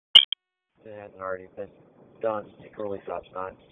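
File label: Raleigh Police Southeast District Dispatch, p25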